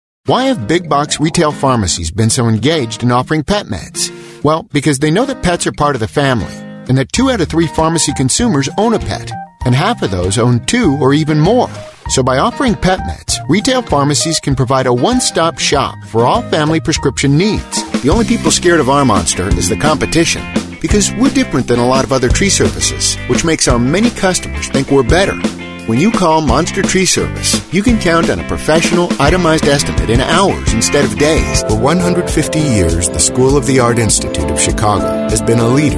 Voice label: Narration Business Explainer